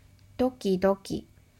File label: "doki doki", Japanese; ドキドキ – doki doki; manga onomatopoeia sound effects